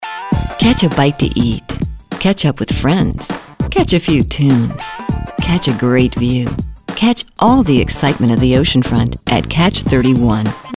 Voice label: Smooth